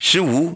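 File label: "ten five", English; iOS 4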